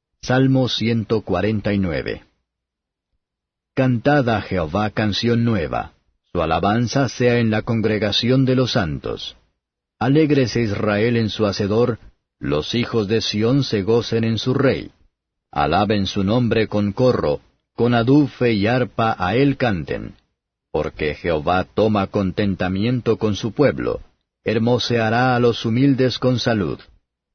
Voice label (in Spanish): salmos spanish